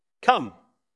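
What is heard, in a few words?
VoiceActing es M2, voice acting, Spanish, male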